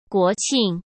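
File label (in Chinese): "国庆", Mandarin Chinese; chinese-flashcards; hsk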